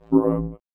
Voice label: VOICEFX ELCTR 140; Techno2